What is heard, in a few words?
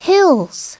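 "hills", English; SoftKey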